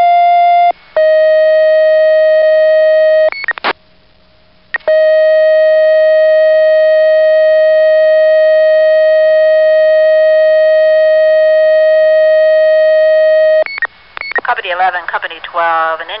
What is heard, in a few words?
Structure Fire w/Entrapment Circa Mid 1980's on Low Band 46.140; vintage; coalrun